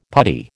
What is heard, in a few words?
"putty", American